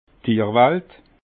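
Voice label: "tierwald", Alsatian, Haut Rhin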